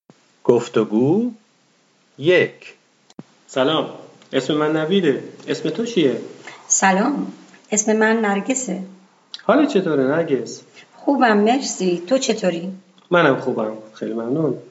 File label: Dialog, persian